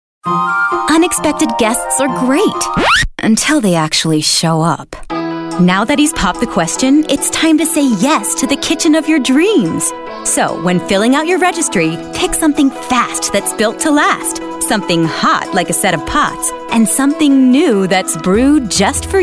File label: female; voice over talent